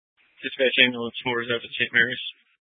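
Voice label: Fire Dispatch, scanner audio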